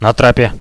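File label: on the gangway, radio, Russian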